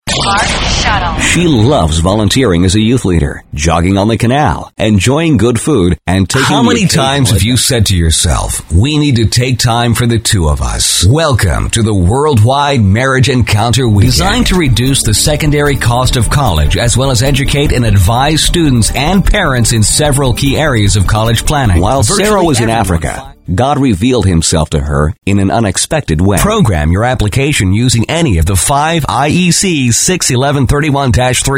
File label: Narration